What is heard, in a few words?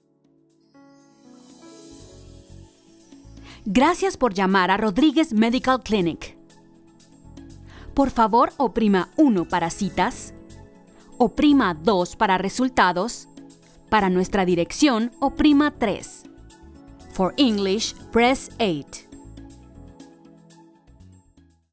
Saludo IVR SPANISH VO